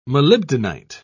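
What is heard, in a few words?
"molybdenite", British English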